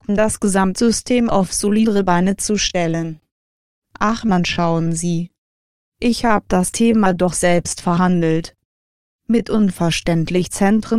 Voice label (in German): Sprachsynthesizer